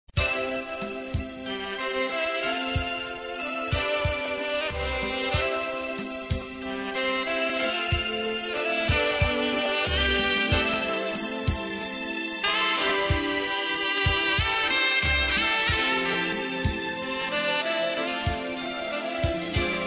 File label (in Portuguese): NASCIMENTO MATERNIDADE ROMANTICA FEM